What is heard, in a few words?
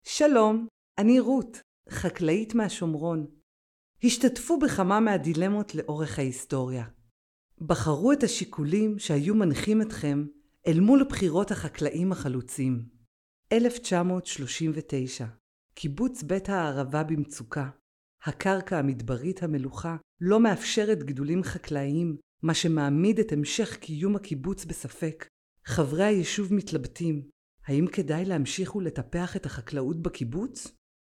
Hebrew F Instructional